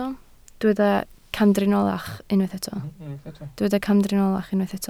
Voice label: "camdriniolach", Welsh